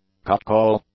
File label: "catcall", English; nouns